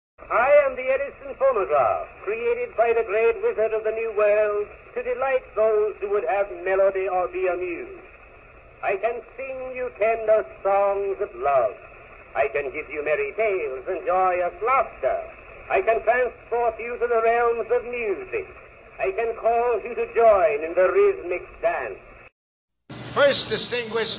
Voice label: Digital Redux Cinematic Dialogue